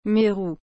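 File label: "merou", French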